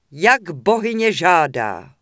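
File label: Human Female Yes, Czech; wowpreklad; czwow; HumanVocalFemale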